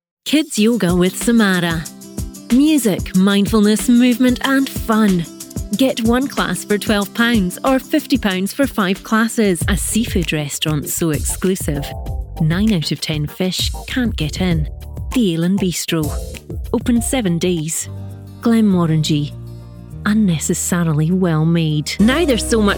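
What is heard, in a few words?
Scottish English, female, commercial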